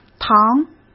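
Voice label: "糖", Mandarin Chinese; hsk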